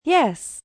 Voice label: "Yes", female; Response; VoiceBox

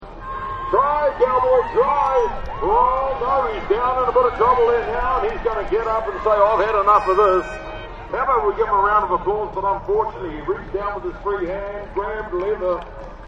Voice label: Rodeo Announcer; Rodeos; Backgrounds

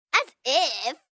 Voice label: As If, Attitude, 1 girl, 9 yrs; VoiceBox

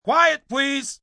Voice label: Quiet Please, assistant director, male; Talking; Film, movie, direction; human voice speaking; VoiceBox

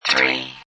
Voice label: Robot Voice "0"

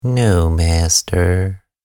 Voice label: No Master, Human Minion, evil voice

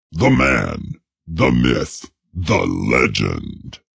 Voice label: Man Myth Legend, Male

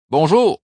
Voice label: French Hello, Male; Greetings And Goodbye

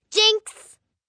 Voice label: "Jinx", 1 girl, 9 yrs; Talking

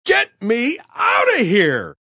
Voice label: Get Me Outta Here, Male; Attitude; Voice Box

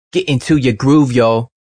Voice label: Into Groove Yo, Male; Radio Sound Effects; VoiceBox; Twistedtracks

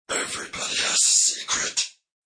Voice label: Evrybdy Secret, Male

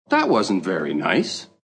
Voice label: Wasnt Very Nice, Male; Talking; VoiceBox

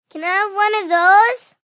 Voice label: Kids Can I Have One, Male, child

